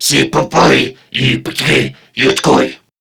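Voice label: Patrol Crewmen, English; Dialog; Barks; Battle; Warframe; CorpusCrewmen; CrewmanA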